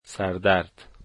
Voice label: headache, dari